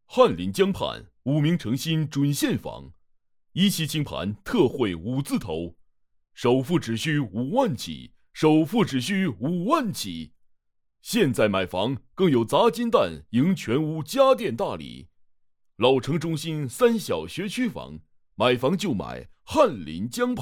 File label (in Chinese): 房产纯干音